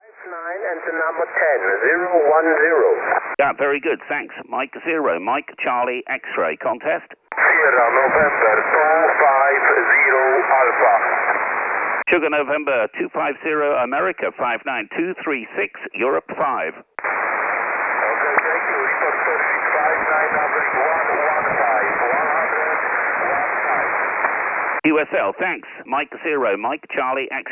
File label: 15m pile up